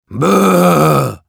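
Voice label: Demoman jeers, russian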